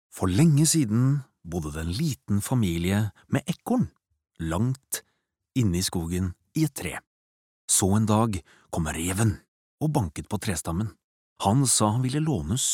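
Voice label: Norwegian childrens book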